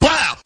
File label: "blaow", Voice